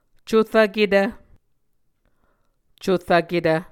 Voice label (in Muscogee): "corvketv", Muscogee